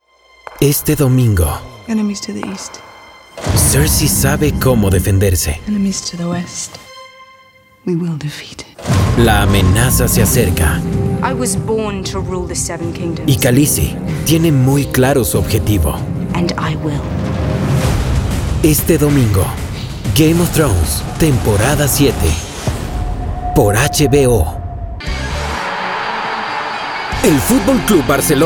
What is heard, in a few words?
TV PROMOS